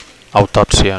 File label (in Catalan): "autopsia", Catalan